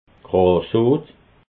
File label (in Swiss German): "grassoot", alsace